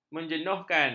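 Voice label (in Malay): "menjenuhkan", Malay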